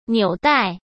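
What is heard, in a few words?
"纽带", Mandarin Chinese; chinese-flashcards; hsk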